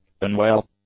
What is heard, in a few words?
"unwell", english; adjectives